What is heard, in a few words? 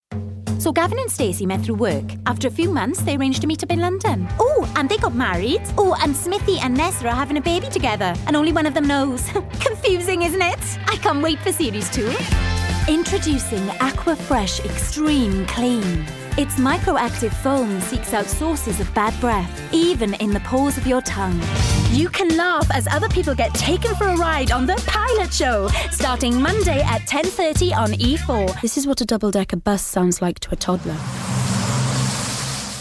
Welsh Accent